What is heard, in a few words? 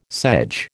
"sedge", American